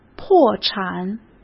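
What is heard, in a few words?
"破产", Mandarin Chinese; chinese flashcards; hsk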